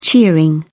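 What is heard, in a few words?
"cheering", British English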